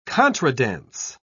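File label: "contradance", British English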